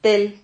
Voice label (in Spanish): "tel", Silabas